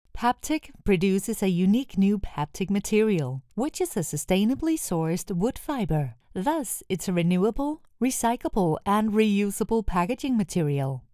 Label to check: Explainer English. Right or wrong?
Right